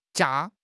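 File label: "jia1", Mandarin Chinese; HSK1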